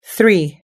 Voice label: "three", us english